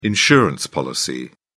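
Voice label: "insurance policy", British English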